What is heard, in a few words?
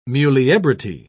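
"muliebrity", British English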